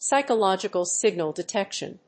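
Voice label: "psychological signal detection", English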